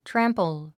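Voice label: "trample", English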